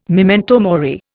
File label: "memento mori", Indian